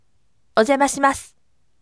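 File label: voice, firm; ojamashimasu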